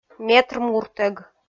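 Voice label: "метр муртӧг", Komi, female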